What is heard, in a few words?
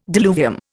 "diluvium", Indian